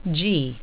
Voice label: "g", english